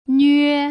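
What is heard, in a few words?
"nue", Putonghua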